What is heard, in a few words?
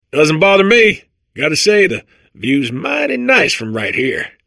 babble; portal2